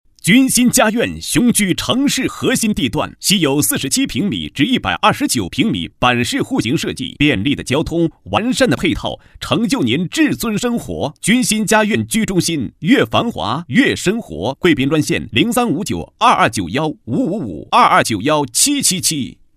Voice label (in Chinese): 男 地产促销广告, 国语男声配音 高音